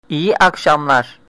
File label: good evening, turkish